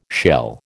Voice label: "shell", American